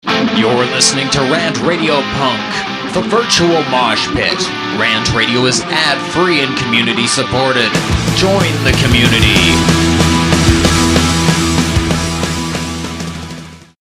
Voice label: Promo punk psa; RantRadio; Station Promos-In House